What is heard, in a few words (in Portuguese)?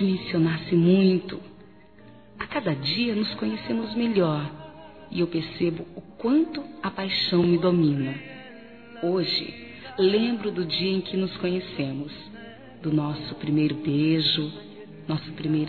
ANIV. CASAMENTO F, Telemensagens; Aniversários